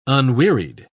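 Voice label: "unwearied", English, British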